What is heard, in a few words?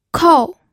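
"kou", fourth tone, pinyin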